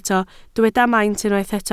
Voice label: "maint", Welsh

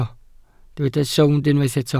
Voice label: "sownd", Welsh

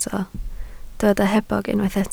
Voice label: "hebog", Welsh

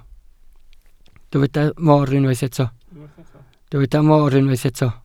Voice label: "mor", Welsh

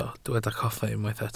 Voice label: "coffa", Welsh

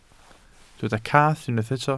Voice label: "cath", Welsh

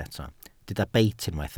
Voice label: "beit", Welsh

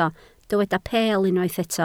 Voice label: "peel", Welsh

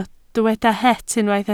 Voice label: "het", Welsh

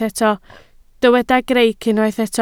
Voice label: "greic", Welsh

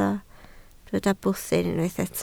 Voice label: "bwthyn", Welsh

